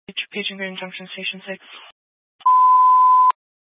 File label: Fire Department Dispatch, scanner; Grand Junction